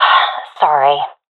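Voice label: sorry, professional female; vo; agents; Source Model Packs; Counter Strike Model Pack; CS2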